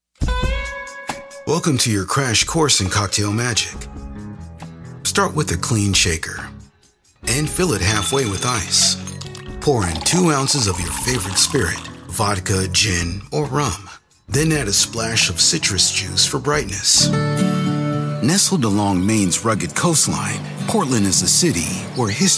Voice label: Narration